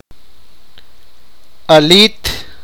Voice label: sipakapense; girl

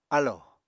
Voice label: "álò", Apatani

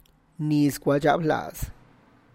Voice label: "niz kua' dxablaz", Tlacochahuaya Zapotec

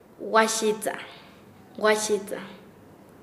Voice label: Nasa Yuwe; endurecerse; paez

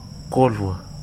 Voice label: "walk", Wahgi; lae unitech

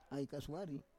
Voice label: "ai kasuari", Roon

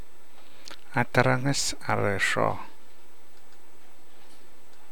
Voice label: tribe, Namolukese

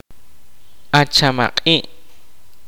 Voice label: "indigenous", kaqchikel

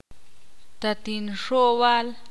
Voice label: ixil; tener miedo v

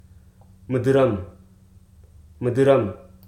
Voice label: "guava", Hruso

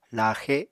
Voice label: "laaxe", Apatani

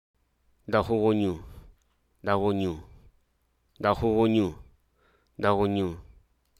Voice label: "curious", hruso

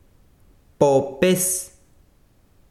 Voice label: besiro pie, chiquitano

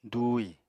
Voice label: "dùuhi", Apatani

